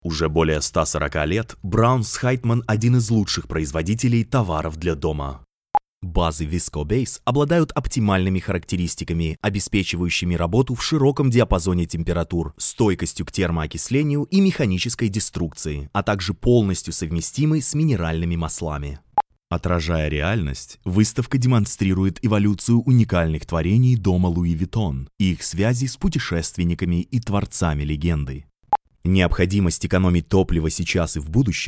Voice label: eLearning Narration Audio Book Educational Material, Russian Voice Over